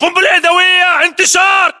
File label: inform incoming grenade, english battlechatter